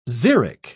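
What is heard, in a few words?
"xeric", British English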